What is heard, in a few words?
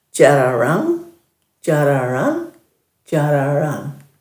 "djarraranj", Dhurga